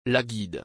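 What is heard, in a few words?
"La guide", French; راهنما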